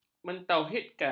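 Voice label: "mentauhidkan", Malay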